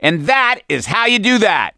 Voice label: hurrah, survivor voice; gambler